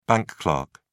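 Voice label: "bank clerk", British English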